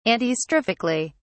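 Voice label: "antistrophically", British English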